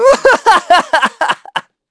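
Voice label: Vox Happy, voices heroes English; kingsraid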